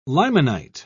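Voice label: "limonite", British English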